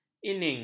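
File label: "inning", Malay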